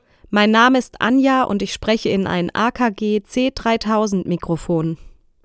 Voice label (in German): akg c3000 sprache weiblich